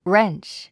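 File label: "wrench", English word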